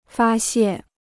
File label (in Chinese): "发泄", Chinese